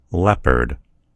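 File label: "leopard", US English; gcompris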